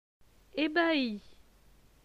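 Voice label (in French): "Ebahi", French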